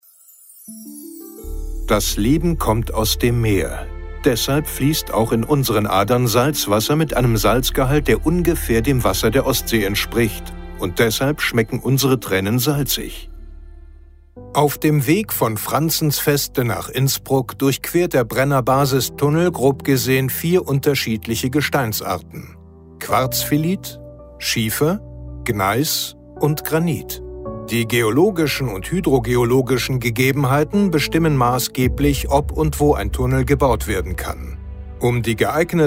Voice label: Hoerbuch Wissenschaft

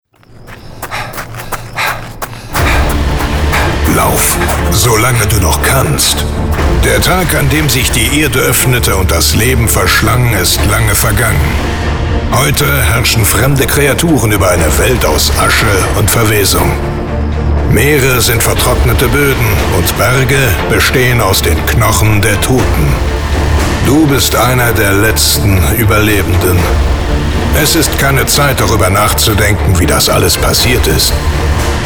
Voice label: Radio Version; Europa-Park Traumatica; deutscher Werbesprecher

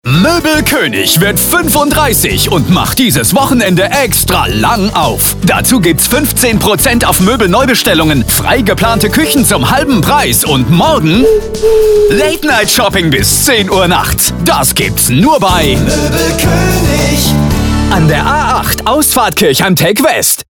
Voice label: Late Night, radiowerbung; Moebel Koenig

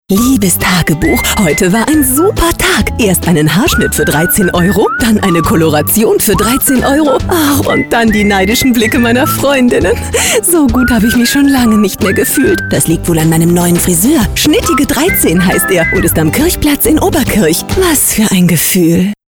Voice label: Spot, Radiospot Beispiele Beauty & Friseure, Modern, trendig, locker; Schnittige 13

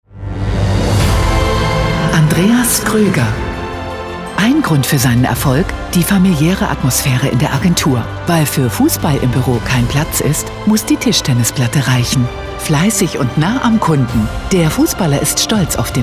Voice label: Ehrungsansage; showansage; eventansage

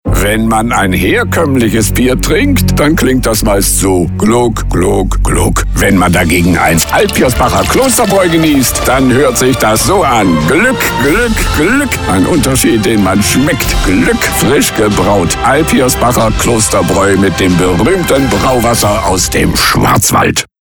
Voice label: Funk, Radiospot; Alpirsbacher

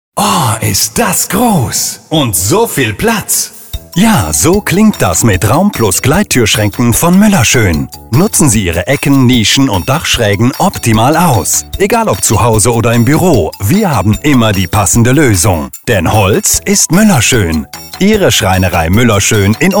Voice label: Radiospot Beispiele Handwerk, Direkt, ehrlich, regional; Schreinerei Muellerschoen Umkirch

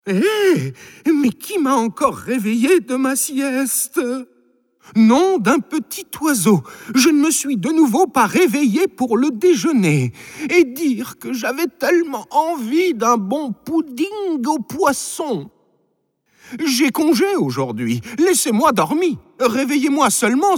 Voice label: Trick Stimme Pelikan; sprecher franzoesisch; french voice-over talent male

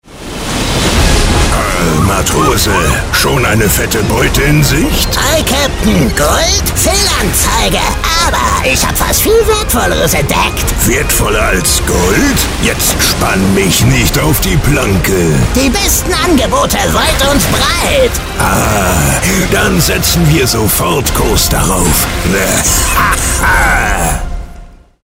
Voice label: Pirat und verrueckter Matrose; profisprecher

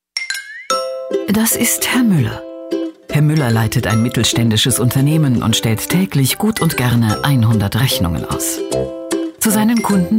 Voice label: weibliche sprecherin fuer voice over

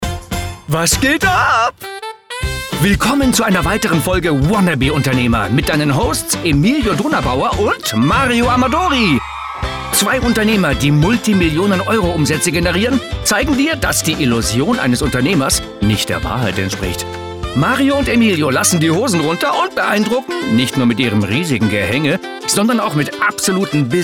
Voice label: Podcast Intro, Sprecher Stimme; podcast intro mit sprecher stimme

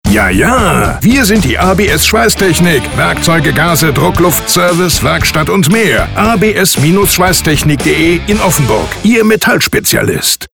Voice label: Image, Werbesprecher, male; deutscher Werbesprecher

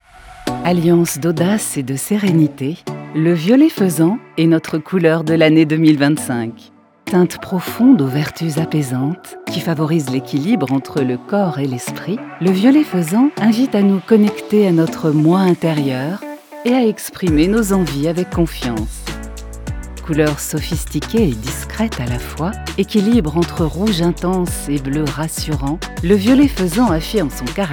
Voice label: Werbung; Chromatic Couleur de l'année; french voice-over talent female

